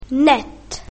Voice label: "net", English; grade1; basic sounds